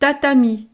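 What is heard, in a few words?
"tatami", French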